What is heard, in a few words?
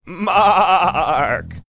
saying mark drawn out goat like